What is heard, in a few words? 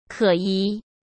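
"可疑" Mandarin Chinese; hsk; chinese-flashcards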